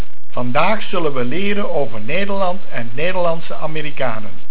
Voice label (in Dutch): "Vandaag", Dutch